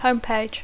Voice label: "homepage", English

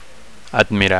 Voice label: "admirar", Catalan; to admire

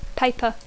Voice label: "paper", English

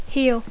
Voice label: "heel", English; taló; tacó

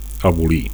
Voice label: "abolir", Catalan

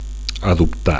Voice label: "adoptar", Catalan; to adopt

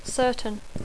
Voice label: "certain", English

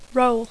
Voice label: "roll", English; panet; rotllo; rodet; llista

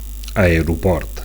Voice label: "aeroport", Catalan; (m) airport

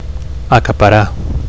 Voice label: "acaparar", Catalan; to monopolize

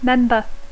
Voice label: "member", English

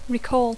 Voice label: "recall", English; recordar; rememorar

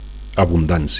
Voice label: "abundancia", Catalan; 1. (f) abundance; 2. (f) affluence; wealth

